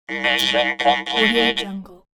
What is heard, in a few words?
robot classic mission completed